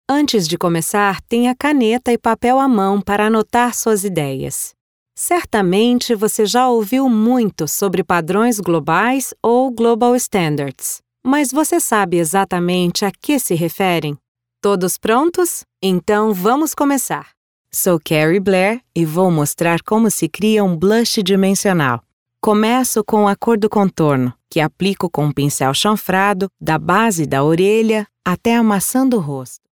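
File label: Brazilian Portuguese elearning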